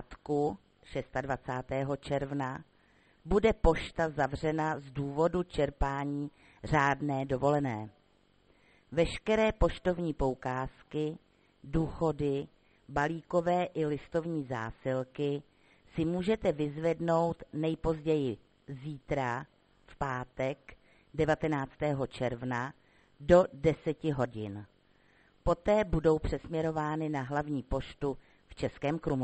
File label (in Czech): hlášení obecního rozhlasu; horice na sumave